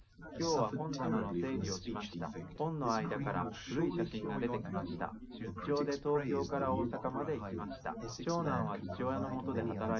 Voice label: male liverec 250ms 5cm; SiSEC08; SiSEC underdetermined